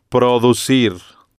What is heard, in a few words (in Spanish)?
"producir", Spanish